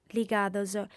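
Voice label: "ligados", female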